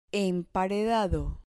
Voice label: "emparedado", Spanish